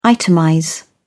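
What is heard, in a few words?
"itemize", British English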